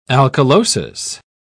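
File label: "alkalosis", British English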